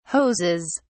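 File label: "hoses", British English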